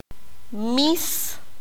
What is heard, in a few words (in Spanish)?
"gato", Q'anjob'al